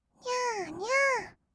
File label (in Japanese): にゃーにゃー, kawaii voice; ASMR; 色々なワンショット